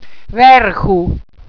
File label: "werchu", Walser